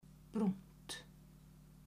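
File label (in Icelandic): "brúnt", Icelandic, female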